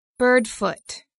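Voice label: "bird-foot", English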